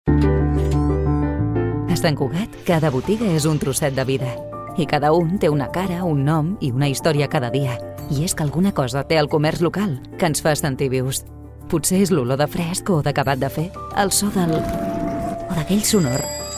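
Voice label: Catalan, female, Narration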